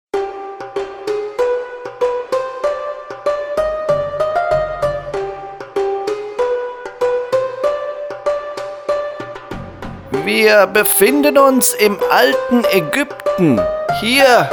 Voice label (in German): Voice Demo; Showtanz Kleopatra